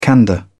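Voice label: "candour", British English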